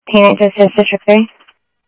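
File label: Sheriff West County, scanner audio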